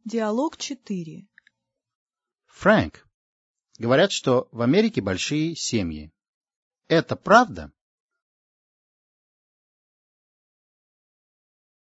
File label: dialog, russian